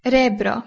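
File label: "rebro" Bosnian Croatian, female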